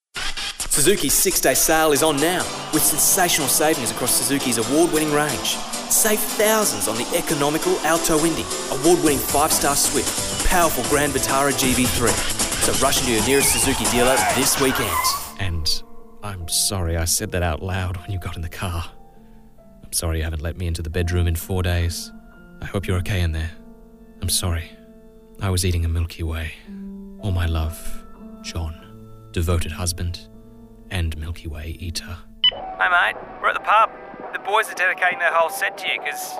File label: Voice Over